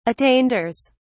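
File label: "attainders", English, British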